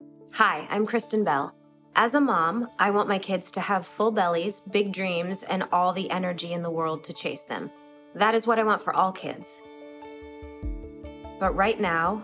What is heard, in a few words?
Radio PSA; Kristen Bell; no kid hungry